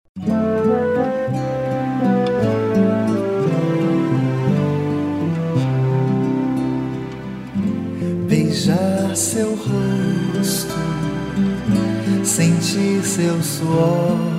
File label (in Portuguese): ANIV PAI MASC; aniver de pai